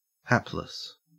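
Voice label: "hapless", Australian English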